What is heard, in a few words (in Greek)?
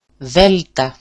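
"delta", Greek; δέλτα; ['δelta]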